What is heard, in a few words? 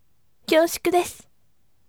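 voice, gentle; kyoushuku desu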